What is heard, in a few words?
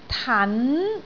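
"tan2", Cantonese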